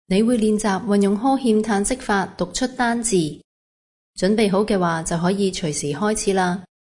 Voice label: instruction yawn sigh single; aphasia